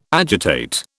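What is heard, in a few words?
"agitate", British English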